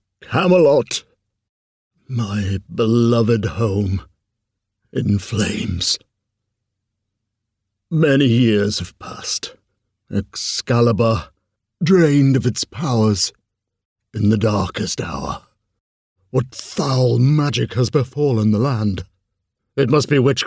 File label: Elderley Wise, character voices